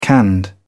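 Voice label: "canned", British English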